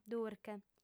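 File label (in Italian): "durche", lingua sarda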